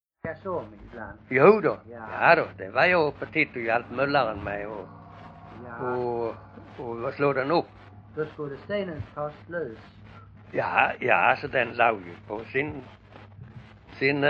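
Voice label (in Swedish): Bosjökloster Skåne, Svenska sagor dialekter; Folkminnen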